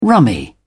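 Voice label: "rummy", English, British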